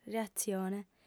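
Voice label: "reatzione", Sardinian, female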